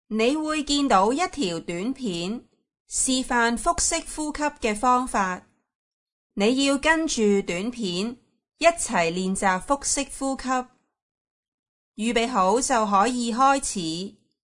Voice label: instruction breathing; aphasia

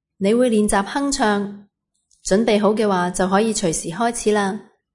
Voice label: instruction humming basic; aphasia

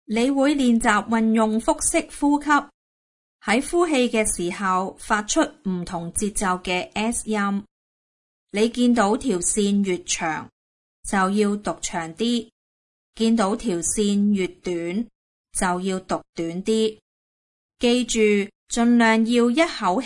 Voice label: instruction breathing; aphasia

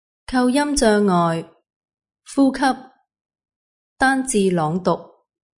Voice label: exercise breathing single; aphasia

